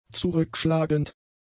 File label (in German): "zurückschlagend", German; adjectives